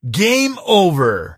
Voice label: Game Over, announcer male